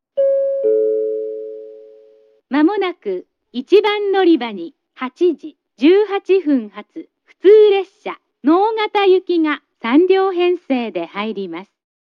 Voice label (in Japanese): announce; jrkyushu; nogata